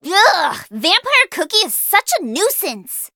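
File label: levelup, English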